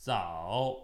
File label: "早", Chinese